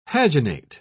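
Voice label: "paginate", British English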